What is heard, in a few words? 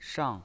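"shang", Mandarin Chinese pinyin, fourth tone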